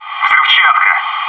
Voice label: "bombs on the ground", bot

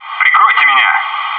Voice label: "cover me", bot

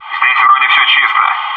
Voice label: "area clear", bot; maxpack

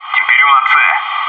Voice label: "guarding c", bot; maxpack

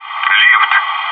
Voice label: "elevator", bot; maxpack

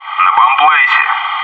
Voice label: "bombsite", bot

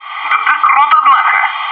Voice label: "good one", bot

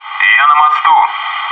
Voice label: "bridge", bot; maxpack

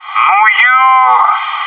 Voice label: "aww man", bot

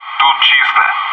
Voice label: "clear", bot

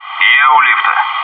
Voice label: elevator, bot

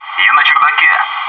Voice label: "attic", bot

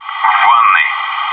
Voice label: "bathroom", bot; maxpack

